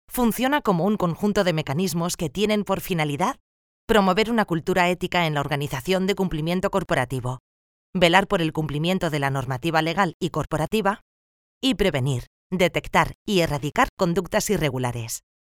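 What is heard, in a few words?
Spanish, female, e-learning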